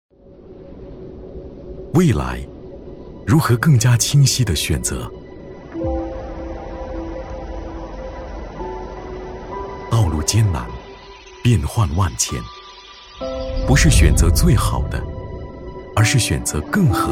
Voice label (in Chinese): 走心 独白类; 趁现在·向未来